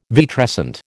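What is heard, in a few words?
"vitrescent", American English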